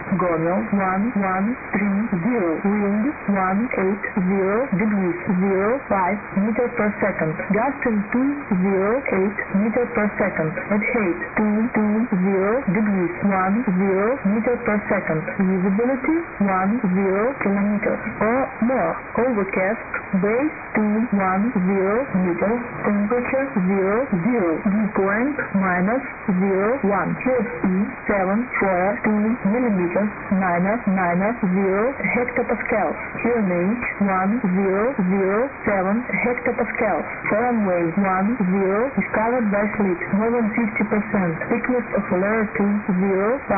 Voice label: ATIS Homiel eng SDR